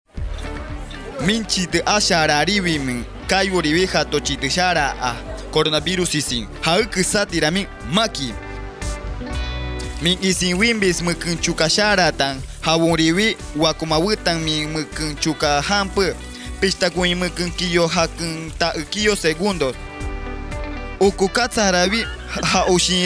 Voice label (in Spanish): amahuaca, spot de radio; recomendaciones generales; medidas de prevencion